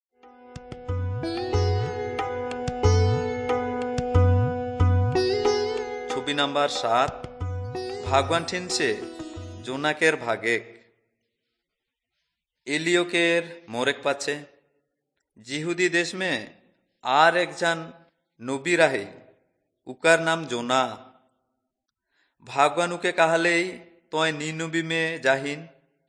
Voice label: urao, drama

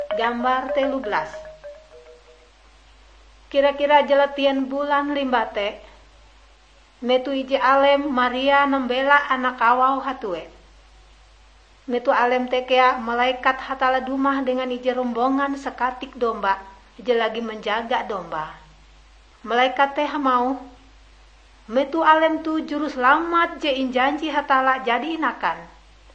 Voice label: kabar bahalap good news ngaju, drama

